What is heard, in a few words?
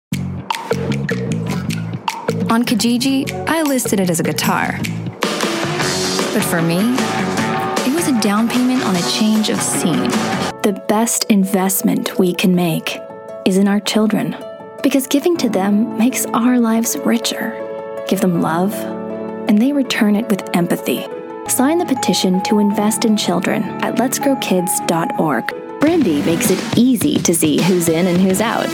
Voice, women